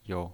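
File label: "you" Mandarin Chinese pinyin voice, neutral tone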